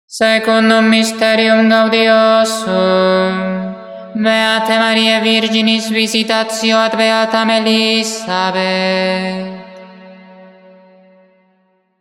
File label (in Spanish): Anuncio del segundo misterio gozoso; santo rosario; misterios gozosos